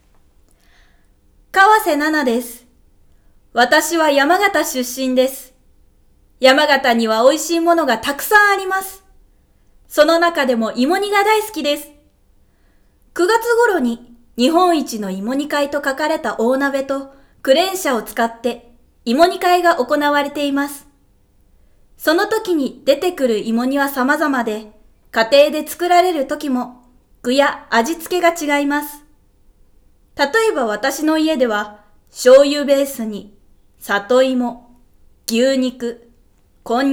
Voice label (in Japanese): フリートーク